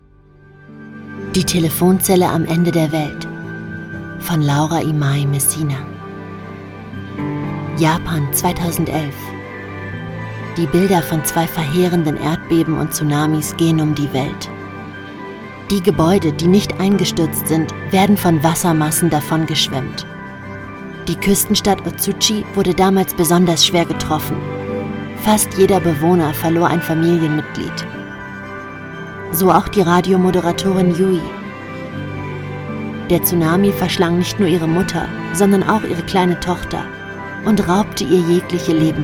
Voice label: german narration

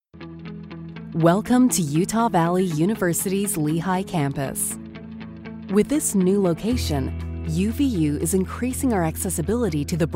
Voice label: english american real estate

